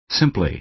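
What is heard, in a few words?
"simply", American English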